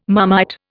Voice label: "Marmite", Indian

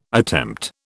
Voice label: "attempt", British

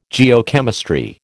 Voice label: "Geochemistry", American

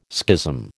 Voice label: "schism", American

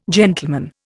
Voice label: "gentleman", Indian

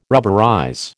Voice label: "rubberize", American

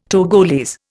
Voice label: "Togolese", Indian

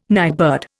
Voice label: "nightbird", Indian

